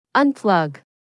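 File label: "unplug", English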